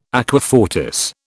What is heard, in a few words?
"aqua fortis", British